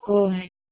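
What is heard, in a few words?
"kouh1", Proto-Indo-European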